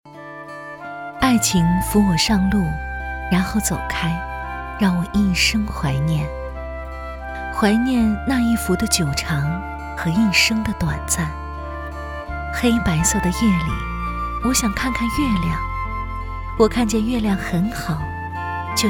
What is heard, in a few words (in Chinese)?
女, 微电影